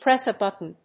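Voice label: "press a button", English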